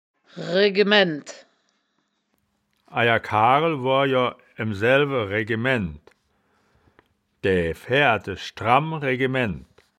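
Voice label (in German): "remennd", wittlicher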